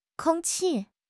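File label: "kong1 qi4", Mandarin Chinese; HSK; HSK4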